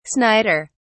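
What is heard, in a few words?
"snider", British English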